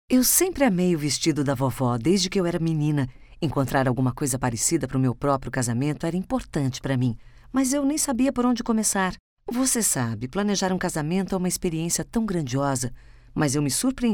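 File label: Portuguese Brazil Female, voiceover; Multilingual Voiceover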